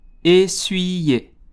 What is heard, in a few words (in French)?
"essuyer", French